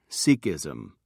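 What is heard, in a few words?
"sikhism", British English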